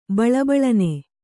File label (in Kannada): "ಬಳಬಳನೆ", Kannada; baḷabaḷane; freely and abundantly.